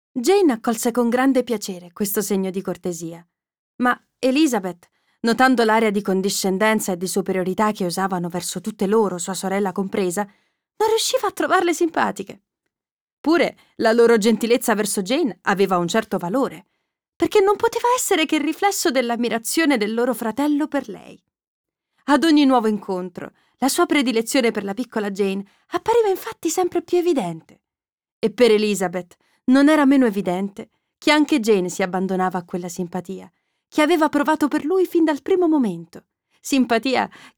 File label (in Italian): Audiolibro